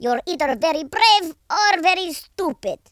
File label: missed, Russki